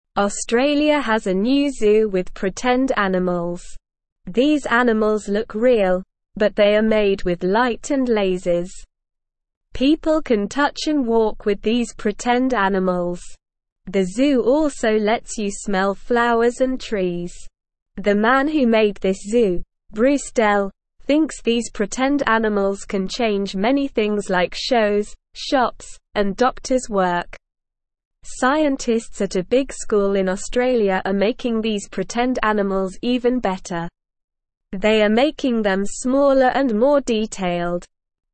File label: English Newsroom Beginner SLOW Reading; Australias New Zoo Pretend Animals Made with Light and Lasers